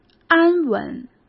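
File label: "安稳" Mandarin Chinese; chinese-flashcards; hsk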